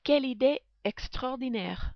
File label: "quelle idee extraordinaire", French